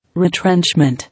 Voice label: "Retrenchment", English